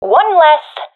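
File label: i killed enemy, professional female; Counter Strike Model Pack; CS:GO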